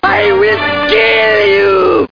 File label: threat